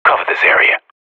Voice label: radio bot hold, professional; player vo